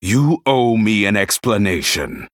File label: Male Human Idle